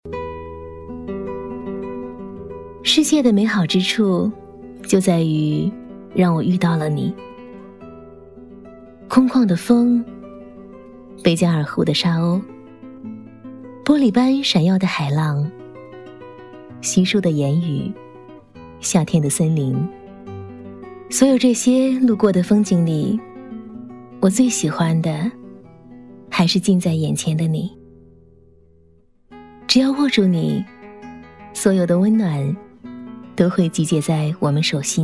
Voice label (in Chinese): 旁白 女; 唯一的永恒